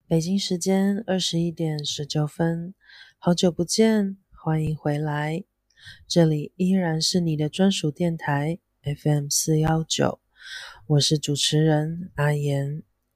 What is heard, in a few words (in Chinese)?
深夜廣播電台配音